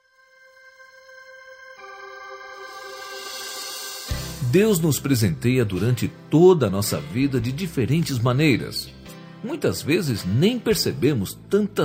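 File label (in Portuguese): Evangélica Aniversário de Irma, male, telemensagens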